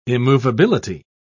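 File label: "immovability", British English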